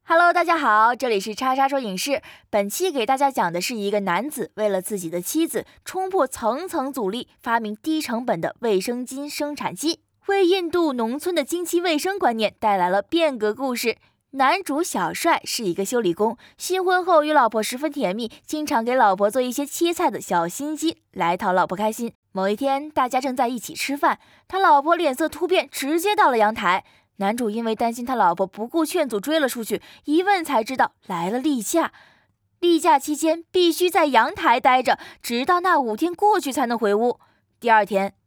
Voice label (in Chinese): 电影解说类